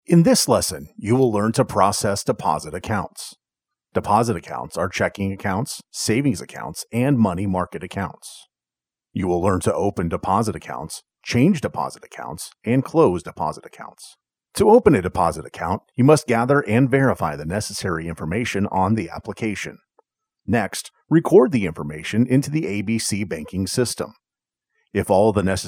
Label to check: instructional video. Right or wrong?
Right